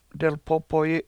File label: "delpOpoyi7", Washo; White Mountain